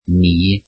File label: "we", Welsh